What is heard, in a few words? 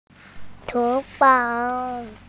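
"chu2 fang2", Mandarin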